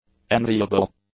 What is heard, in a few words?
"enviable", English; adjectives